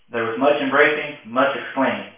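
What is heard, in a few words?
male reverberant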